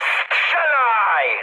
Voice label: attack position mood high, speech pol; War Thunder